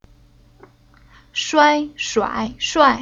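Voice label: "Shuai", Chinese syllable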